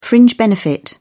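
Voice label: "fringe benefit", British English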